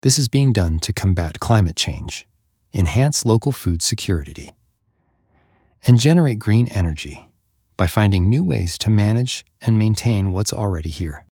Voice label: Voiceover